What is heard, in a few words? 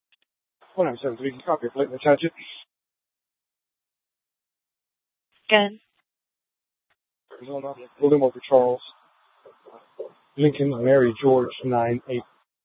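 Mesa Police Department Superstition Dispatch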